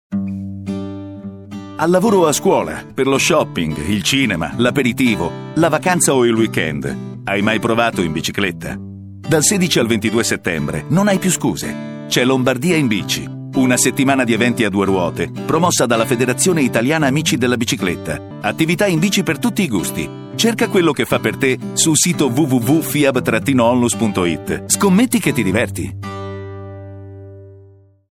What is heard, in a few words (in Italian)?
Spot Radio